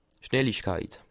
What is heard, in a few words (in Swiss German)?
"schnalig", alsace